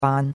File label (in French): "ban1", chinois; Cours-de-Chinois